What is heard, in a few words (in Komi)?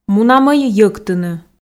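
мунамӧй йӧктыны, Komi, female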